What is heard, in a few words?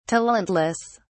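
"talentless", British English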